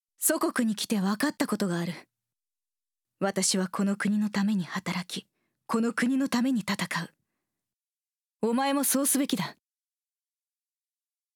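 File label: japanese female character; voice demos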